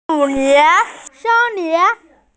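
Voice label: "sen1lin2", Mandarin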